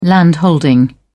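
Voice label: "landholding", British English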